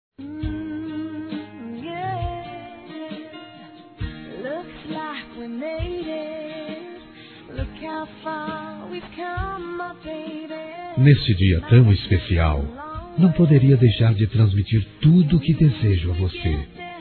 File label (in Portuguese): aniv. romantico voz masculina; Aniversários